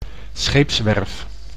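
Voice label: "scheepswerf", Dutch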